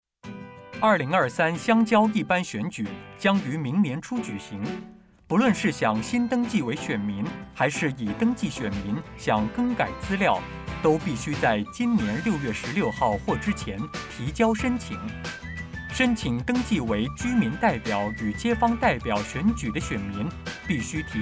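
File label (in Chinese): radio Putonghua; 选民登记; 电台宣传声带; 宣传资料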